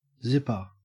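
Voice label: "zipper", Australian English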